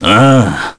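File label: Vox, Korean; Baudouin; kingsraid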